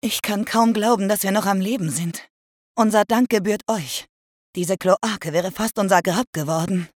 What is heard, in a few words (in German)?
Sprecherin dankbar; Alt Stimme; Games und Spielfilm-Synchronisation